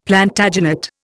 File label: "Plantagenet", Indian; Dictionary